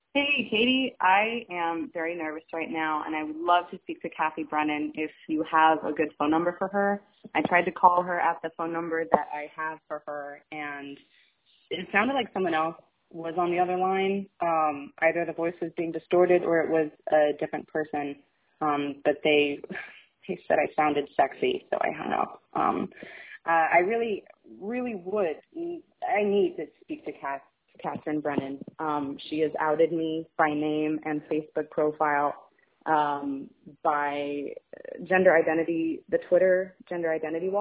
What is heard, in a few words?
voicemail